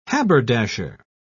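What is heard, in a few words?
"haberdasher", British English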